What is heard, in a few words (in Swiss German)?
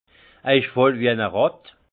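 "ratt", alsace Haut-Rhin; vin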